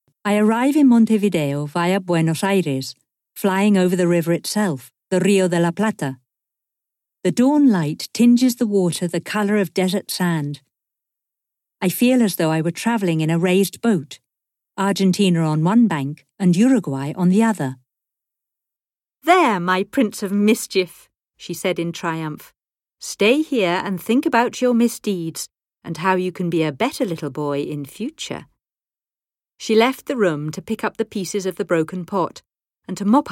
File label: EN UK NARR, dona, Britànica